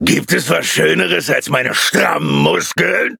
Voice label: levelup, de; Cookie Run